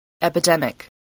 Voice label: "epidemic", voice, english

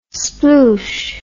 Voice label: "sploosh", English

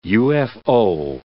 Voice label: "UFO", English

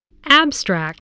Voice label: "abstract", English voice

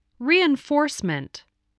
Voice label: "reinforcement", spelling voice

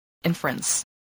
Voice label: "inference", spelling voice

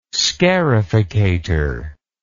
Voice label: "scarificator", English

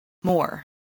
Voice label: "more", voice, spelling

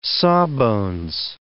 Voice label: "sawbones", English

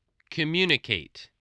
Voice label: "communicate", voice

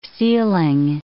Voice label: "ceiling", English

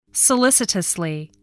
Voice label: "solicitously", English voice

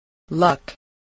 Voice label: "luck", spelling

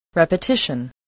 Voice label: "repetition", English

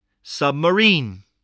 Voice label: "submarine", spelling

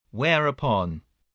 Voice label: "whereupon", spelling

